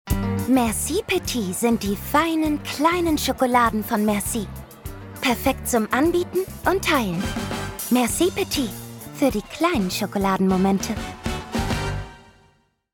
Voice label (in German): Werbung; Merci Petit; LOFT VOICES